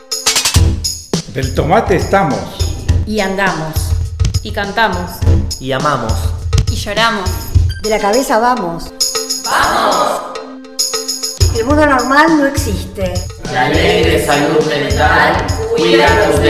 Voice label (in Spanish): SPOT